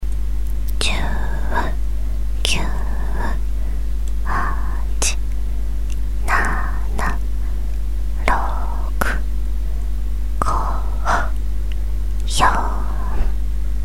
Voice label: ささやき カウントダウンボイス; 凛の声だけ

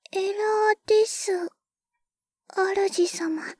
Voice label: エラー, システムボイス; ベル・カールステッド

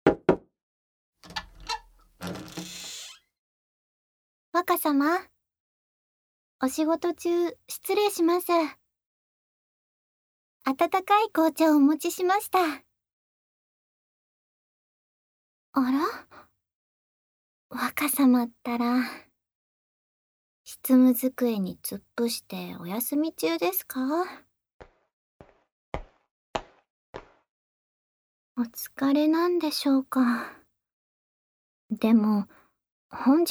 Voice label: 囁き&耳舐め, ASMR; こっそりと悪戯

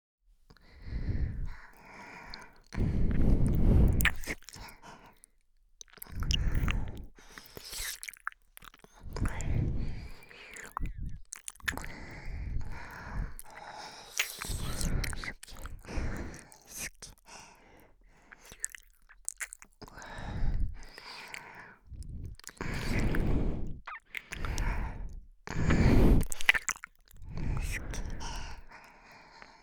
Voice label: スキスキ耳舐め, ASMR

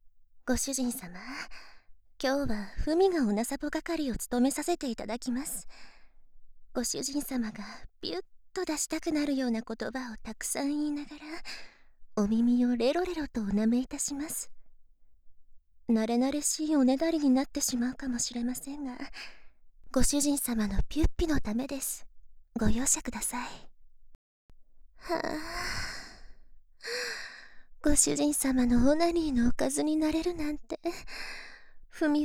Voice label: ごあいさつ, 左, バイノーラル; ハーレムオナサポ 4人のメイドがご主人様のオナニーをお手伝いするバイノーラル～文、千曜、名月、莉桜～

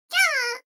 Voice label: 女の子 台詞

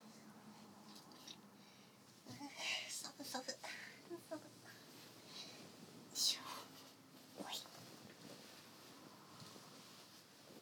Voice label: 関西 添い寝

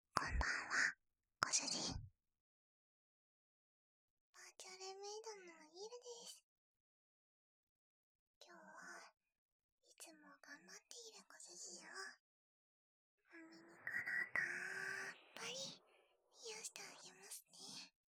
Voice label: 耳かきしてあげますね, ASMR

